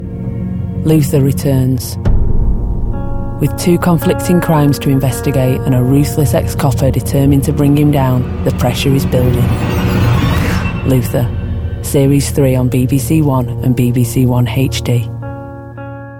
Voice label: Promo

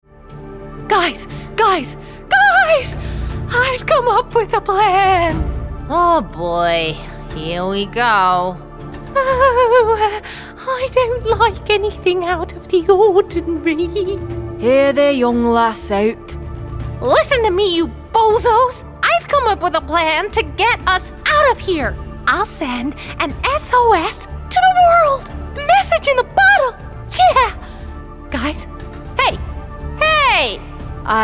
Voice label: Animation

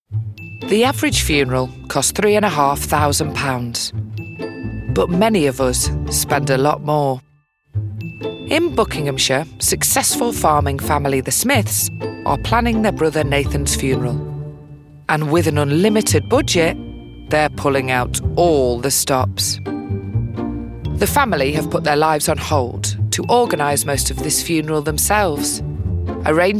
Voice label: documentary

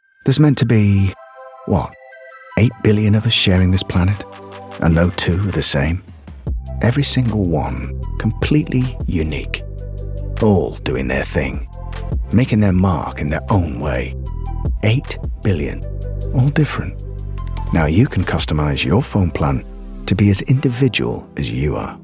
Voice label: commercial reel

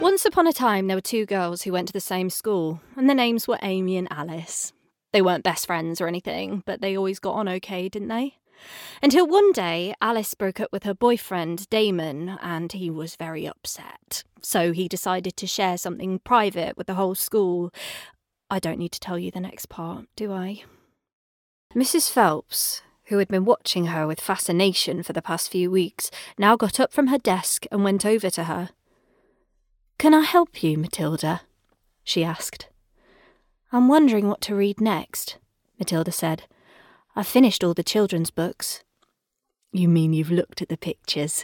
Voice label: Audiobook

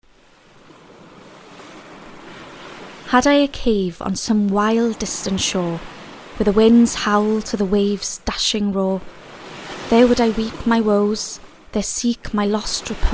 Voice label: Audio Book

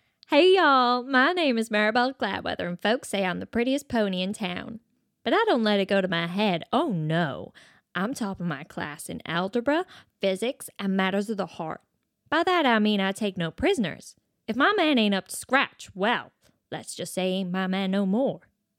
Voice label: Animation

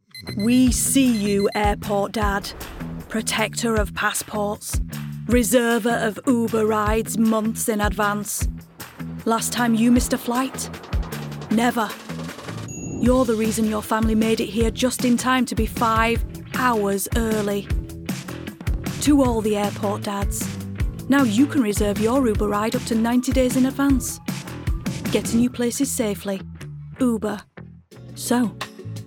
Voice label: Commercials

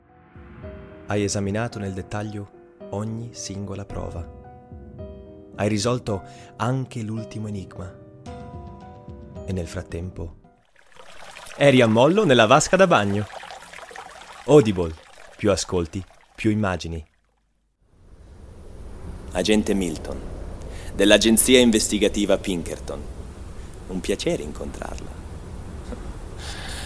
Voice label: Italian

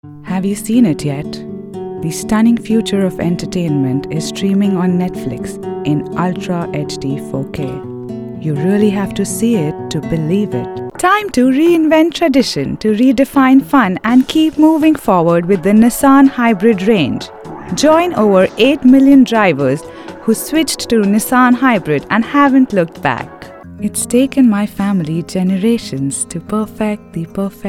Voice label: COMMERCIAL VOICE

